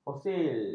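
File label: "fosil", Malay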